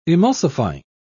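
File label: "emulsifying", British English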